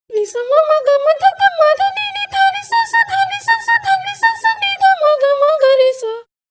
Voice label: voice, pitchshift 12; prosoniq time factory clear scale